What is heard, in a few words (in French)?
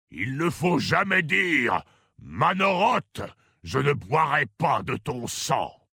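French Male Blague; OrcMaghar; Mannoroth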